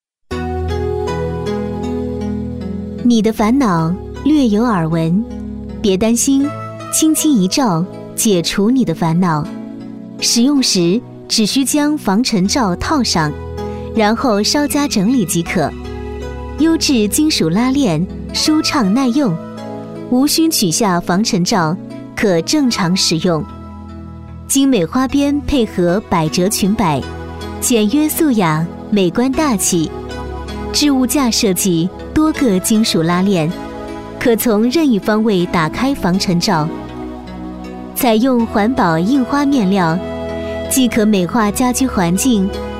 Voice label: 女 产品介绍 防尘罩（亲和甜美）

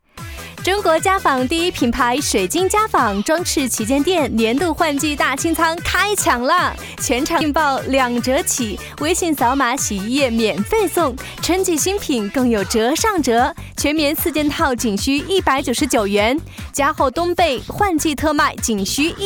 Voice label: 女 家纺（活力）

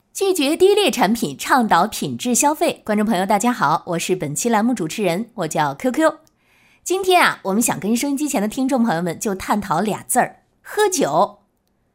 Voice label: 女 电台购物女主持人

